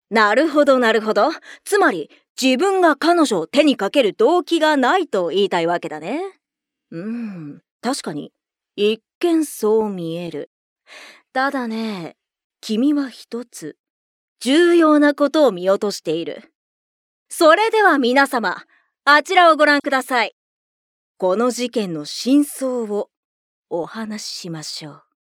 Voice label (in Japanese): 中性的